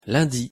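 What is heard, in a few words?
"lundi", French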